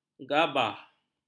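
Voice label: "gabah", Malay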